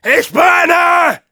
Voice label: Demoman auto on fire, de